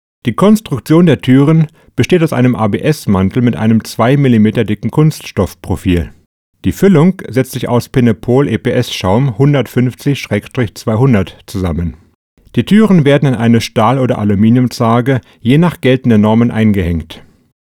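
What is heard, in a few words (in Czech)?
němčina, German; Berlíně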